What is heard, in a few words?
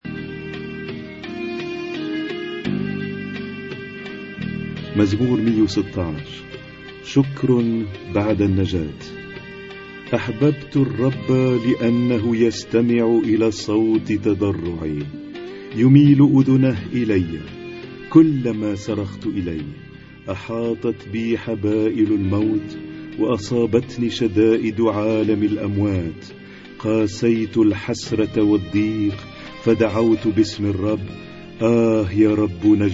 Arabic Psalm Zaboor